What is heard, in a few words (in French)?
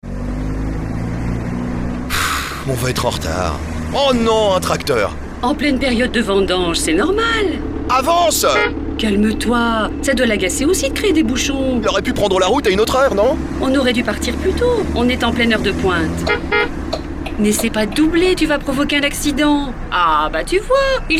Spot d'information radiophonique